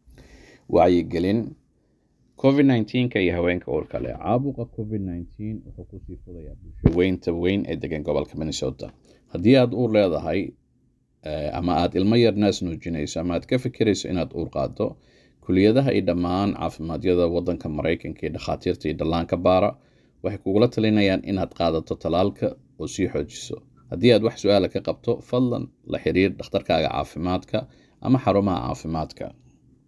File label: psa announcement